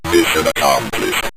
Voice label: mission accomplished, synth voice; Kenney's Sound Pack